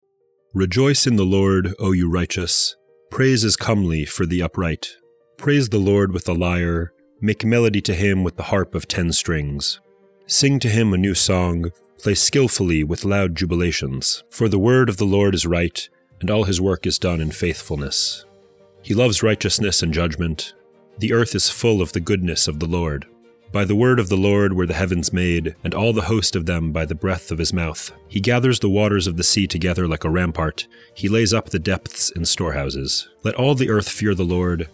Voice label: poetry and prayer psalm english